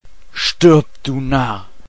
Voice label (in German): Narr